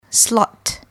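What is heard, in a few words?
"slot", English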